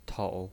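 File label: "tou", pinyin voice, third tone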